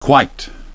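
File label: "quite", English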